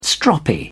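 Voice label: "stroppy", British English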